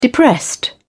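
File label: "depressed", English, British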